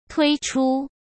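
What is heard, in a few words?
"推出", Mandarin Chinese; chinese flashcards; hsk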